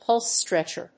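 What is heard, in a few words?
"pulse stretcher", English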